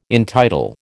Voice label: "entitle", American

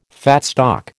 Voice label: "fat stock", American

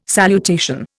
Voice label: "salutation", Indian

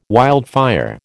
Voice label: "wildfire", American

